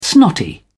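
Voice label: "snotty", British English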